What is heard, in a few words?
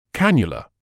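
"cannula", British English; ˈkæn.jə.lə; KAN-yuh-luh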